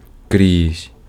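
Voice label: Ukrainian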